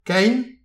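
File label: "kein", Breton; petit precis de prononciation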